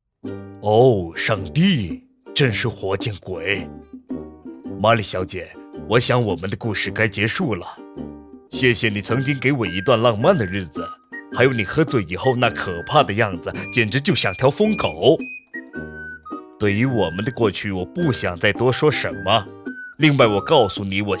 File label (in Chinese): 影视中英译制片台词